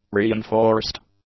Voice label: "reinforced", English; adjectives

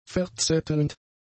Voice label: "verzettelnd", German; adjectives

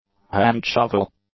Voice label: "hand-shovel", English; nouns

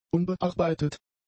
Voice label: "unbearbeitet", German; adjectives

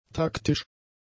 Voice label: "taktisch", German; adjectives

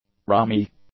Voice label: "rummy", English

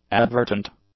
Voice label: "advertent", English; adjectives

